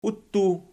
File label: "uttu", Archi